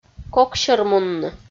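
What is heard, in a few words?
"коксермунны" Komi, female; audio dict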